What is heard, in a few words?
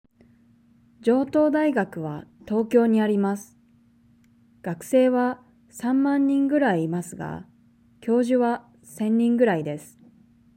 Reading natural; Nakama; OJAD